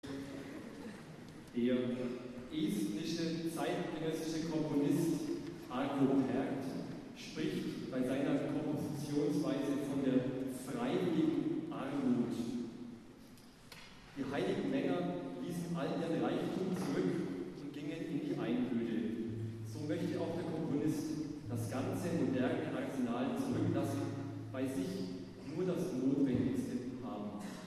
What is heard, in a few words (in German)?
Ansage; Fratres Arvo Paert